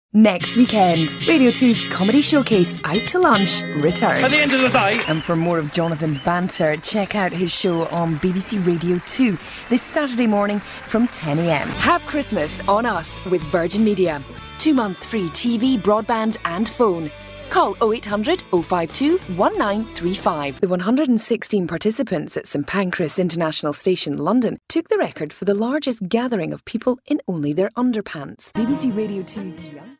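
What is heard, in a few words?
British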